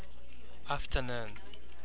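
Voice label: "afternoon", Japanese, male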